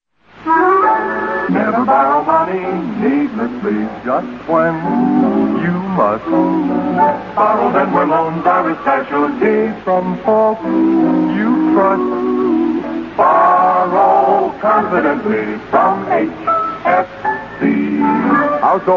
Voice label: Household Finance Corp, Old Radio Ads